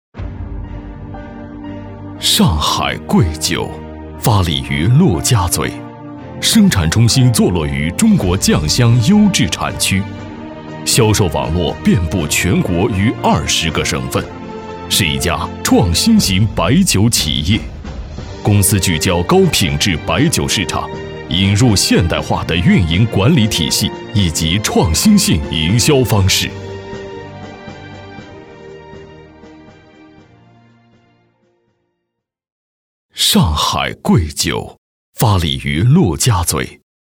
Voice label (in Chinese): 男 文化宣传片